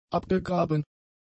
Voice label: "abgegraben", German; adjectives